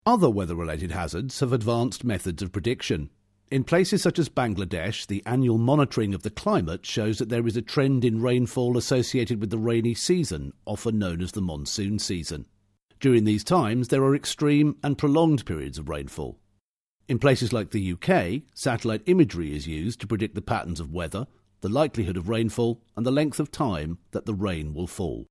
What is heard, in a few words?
TEST SCRIPT BRITISH